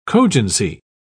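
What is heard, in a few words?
"cogency", British English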